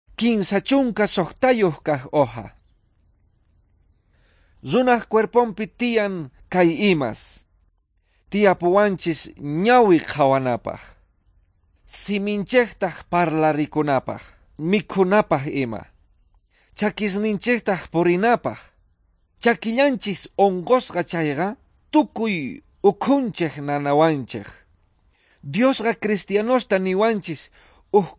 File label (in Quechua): quechua bolivian cochabamba; good news